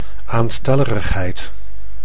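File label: "aanstelligerigheid", Dutch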